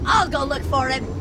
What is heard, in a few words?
grunt; h3dialogue; staten